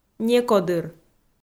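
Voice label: "некодыр", Komi-Zyrian, female; kpv audio dict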